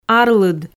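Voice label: "арлыд" Komi, female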